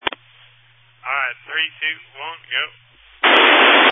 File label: Family Radio Service (FRS)